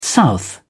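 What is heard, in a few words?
"south", English, British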